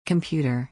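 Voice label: "Computer", English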